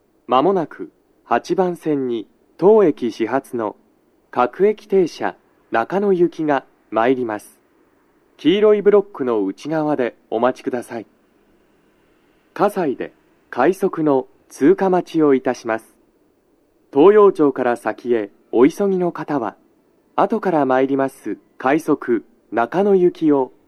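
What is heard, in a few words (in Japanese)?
接近放送 男声, 当駅始発 各駅停車 中野行, 葛西で、快速 中野行きの通過待ちを致します; 収録者:こたけ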